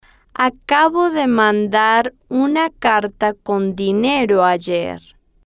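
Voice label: acabo De Mandar Una Carta Con Dinero Ayer, slow, Spanish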